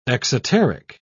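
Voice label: "exoteric", British English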